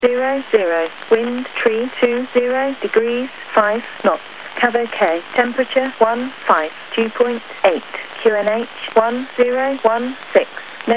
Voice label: USB Volmet